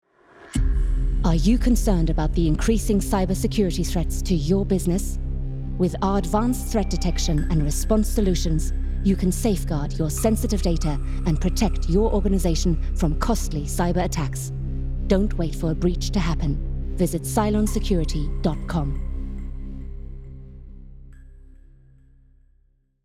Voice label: female english with undetectable accent; security; cylon

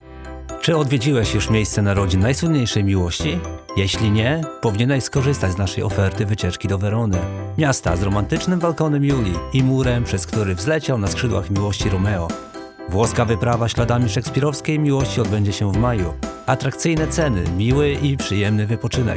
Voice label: male polish commercial

